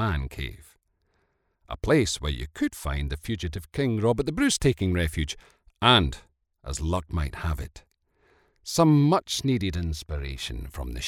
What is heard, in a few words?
voiceover raw studio sample